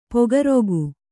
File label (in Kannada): "ಪೊಗರುಗು", Kannada